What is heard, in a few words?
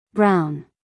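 "brown", American English, female; everyone-can-use-english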